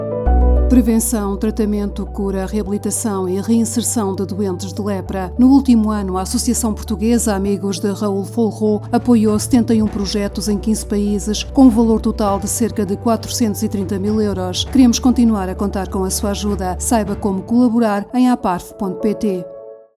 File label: Spot radio